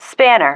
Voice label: "spanner", female